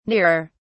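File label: "nearer", British English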